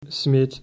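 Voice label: "smeet", Dutch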